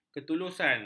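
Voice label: "ketulusan", Malay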